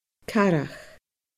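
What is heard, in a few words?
"carach", Scottish Gaelic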